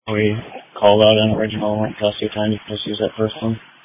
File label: Police Dispatch